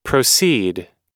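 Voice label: "proceed", US English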